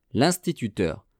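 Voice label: "linstituteur", French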